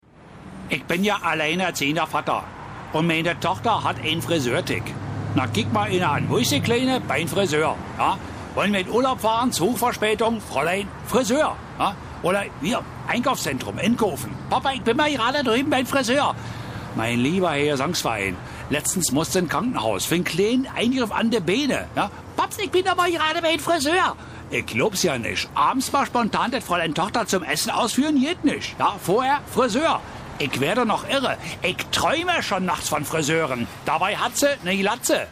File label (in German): Berliner